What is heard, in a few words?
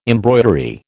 "embroidery", American